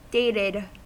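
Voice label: "dated", US English; pronunciations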